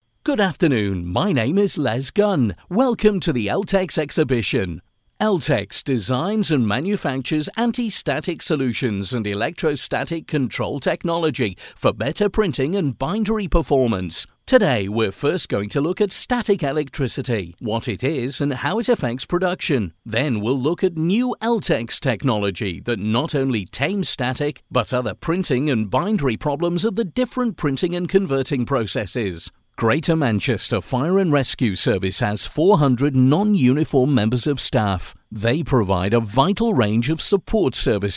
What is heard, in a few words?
On Hold